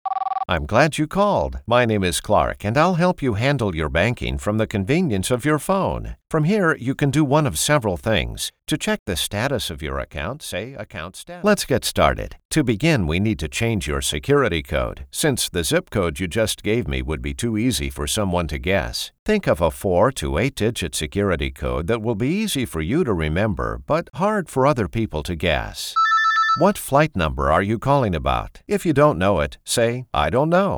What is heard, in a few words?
voice prompts, male voice talent